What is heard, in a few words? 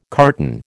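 "carton", American English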